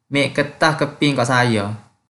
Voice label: Kelantan-Pattani Malay